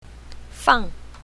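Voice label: "fang4", Chinese pinyin, south, female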